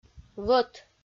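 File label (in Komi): "вӧт", Komi, female; audio dict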